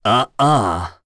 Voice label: Roman Vox Deny, voices heroes en; kingsraid-audio